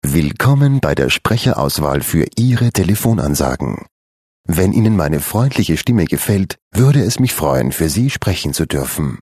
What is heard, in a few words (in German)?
INTRO deu freundlich